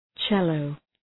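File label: "cello", English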